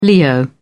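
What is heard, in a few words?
"leo", British English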